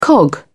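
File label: "cog", British English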